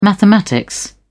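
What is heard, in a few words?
"mathematics", British English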